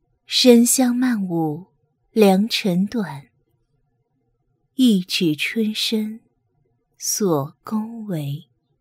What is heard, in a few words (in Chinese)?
女 游戏; 西施